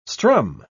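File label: "strum", English pronunciation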